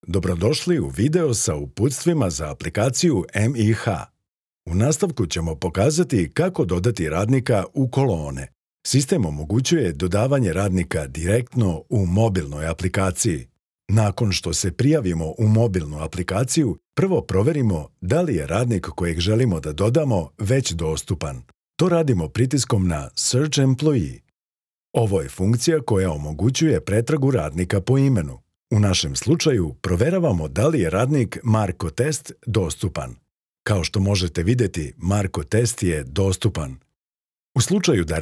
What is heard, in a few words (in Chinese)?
塞尔威亚语男磁性大气